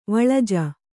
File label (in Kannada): "ವಳಜ", Kannada